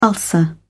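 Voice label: "ulcer", British English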